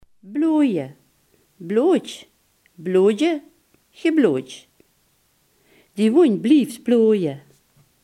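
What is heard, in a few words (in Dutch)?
"bloeden" Limburgish dialect word; bloeden, bloje (blootj, bloodje, gebloodj); Die wónj blieftj bloje.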